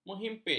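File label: "menghimpit", Malay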